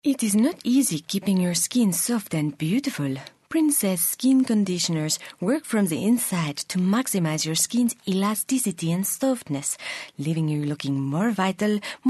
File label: french